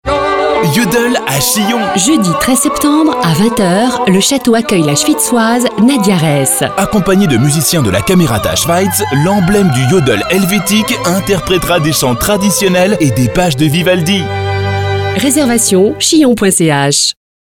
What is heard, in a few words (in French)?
radio pub spot